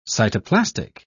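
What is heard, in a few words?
"cytoplastic", British English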